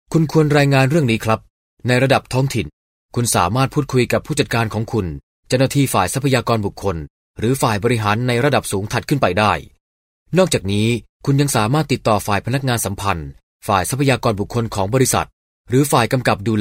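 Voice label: Thai, male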